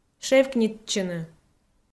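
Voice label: "шевкнитчыны", Komi, female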